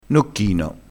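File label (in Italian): "nocchino", dialettale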